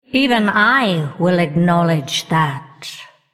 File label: female undead thanks